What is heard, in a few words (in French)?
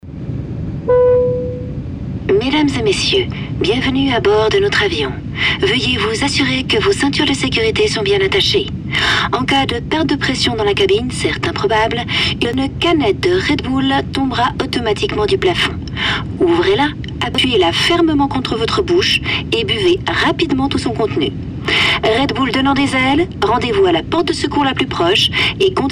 radio pub spot